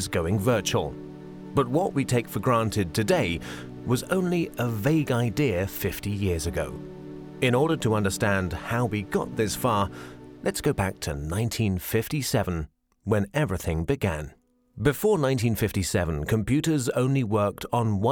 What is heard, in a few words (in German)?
schuelungsfilm internet doku